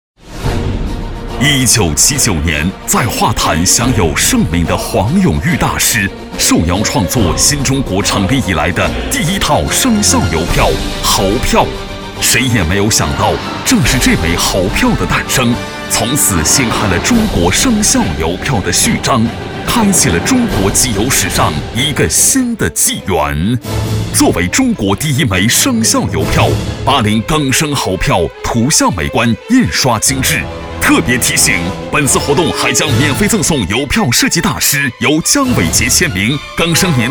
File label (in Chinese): 男 专题 大气; 生肖瑰宝